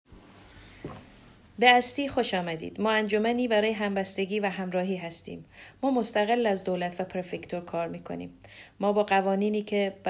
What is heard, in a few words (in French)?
farsi bienvenue et conseil csp; Collectifs farsi